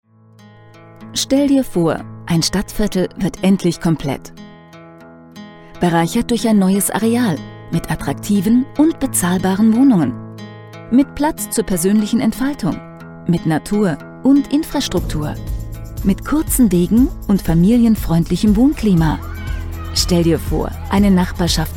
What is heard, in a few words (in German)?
Sprecher Showreel